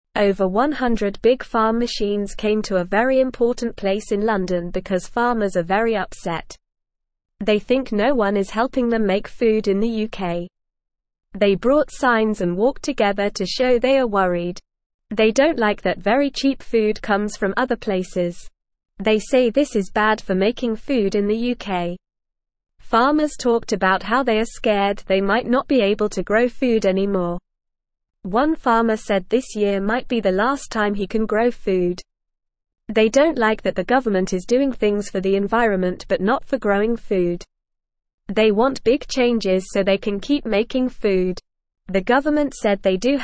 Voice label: English NORMAL Reading; English Newsroom; Farmers in London protest for help with food

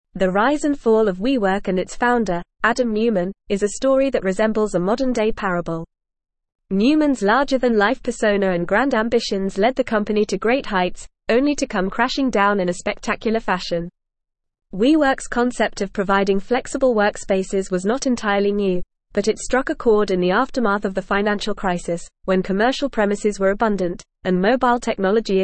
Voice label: English Newsroom Advanced FAST Reading; The Rise and Fall of WeWork A Cautionary Tale

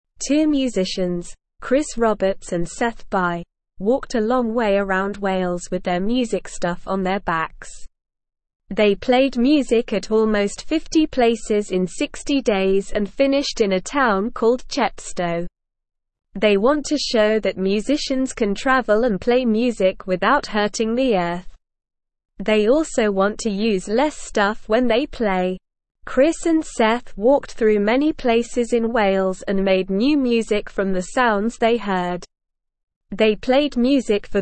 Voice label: English Lower Intermediate SLOW Reading; Two Friends Walk 870 Miles Playing Music; English Newsroom

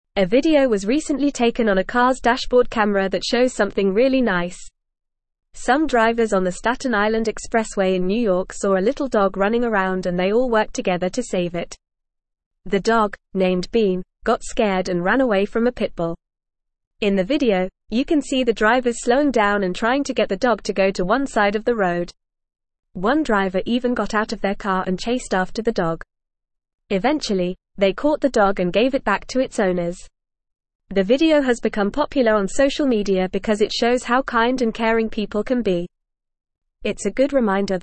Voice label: English Upper Intermediate FAST Reading; English Newsroom; Motorists Rescue Runaway Chihuahua on Staten Island

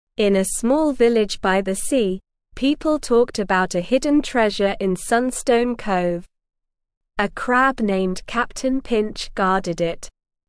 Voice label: ESL Short Stories for Kids Beginner SLOW Reading; The Hidden Treasure of Sunstone Cove

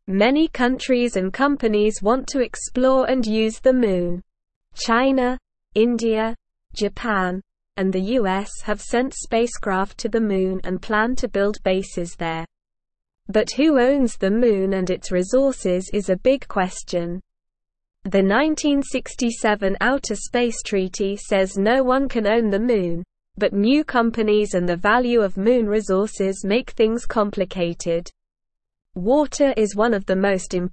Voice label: English SLOW Reading; Who Owns the Moon

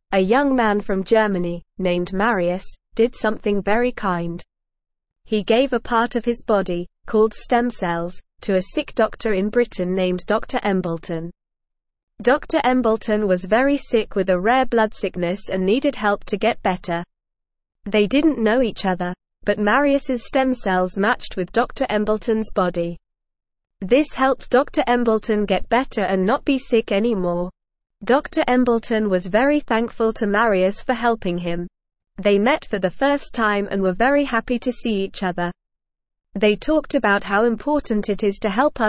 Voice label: English NORMAL Reading; A Kind Man Helps a Sick Doctor

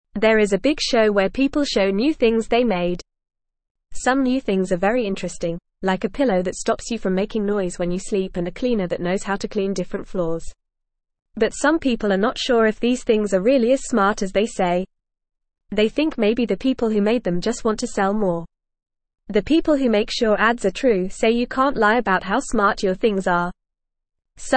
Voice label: Beginner FAST Reading, English; English Newsroom; New Inventions at Big Show Are They Really Smart

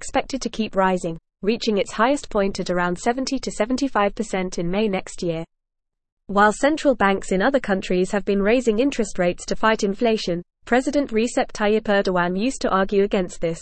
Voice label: English Newsroom Upper Intermediate FAST Reading; Turkey Raises Interest Rates to Combat High Inflati

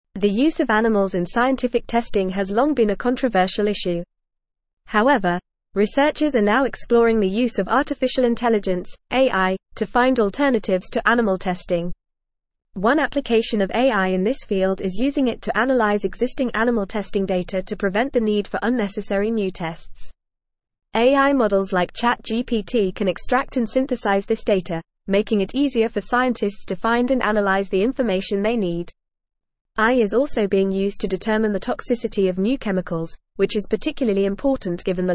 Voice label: English FAST Reading; AI Accelerates Search for Non Animal Testing Alternatives

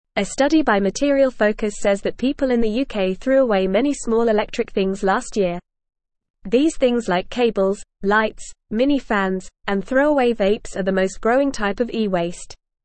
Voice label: English Newsroom Beginner FAST Reading; People in the UK throw away lots of electric things

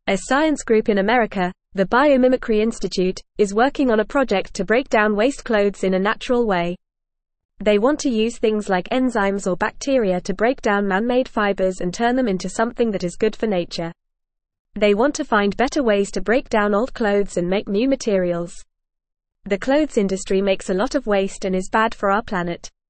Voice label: English Newsroom Beginner FAST Reading; Making Clothes Better for the Planet

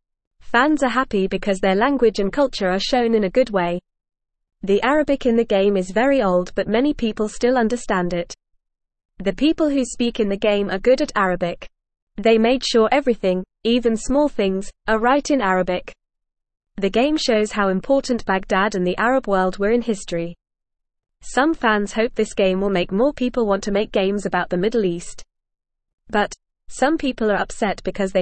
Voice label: English Newsroom Beginner FAST Reading; New Video Game Shows Arabic Language and Old Baghdad

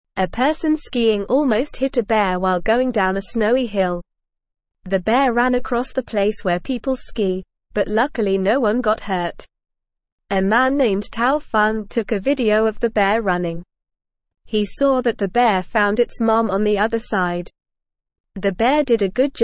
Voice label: English NORMAL Reading; Bear and Skier A Surprising Encounter on the Hill; English Newsroom

